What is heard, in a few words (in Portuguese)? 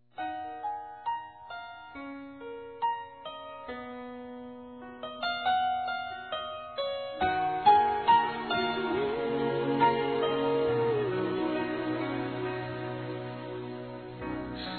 NAMORO F; Aniversários; ANIVERSARIO romantico; fem